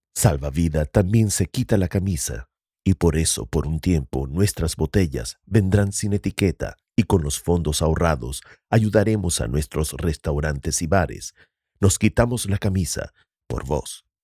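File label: Institucional, Spanish; Covid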